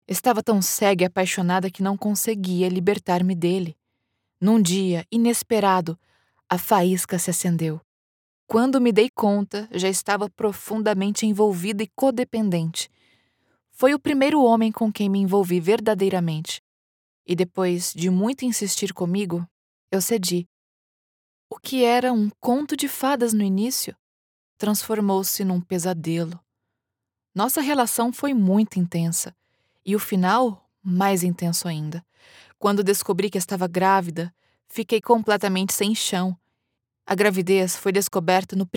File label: audiobook narrator young emotional believable engaged 1st person narration, female Brazilian Portuguese voiceover; ACX; the peanut cream